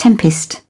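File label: "tempest", British English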